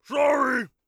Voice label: sorry, orc voice; Orc Voice Pack